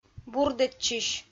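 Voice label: "бурдӧдчысь", Komi-Zyrian, female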